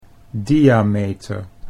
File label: "diameter", Dutch